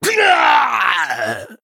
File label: Male Human Death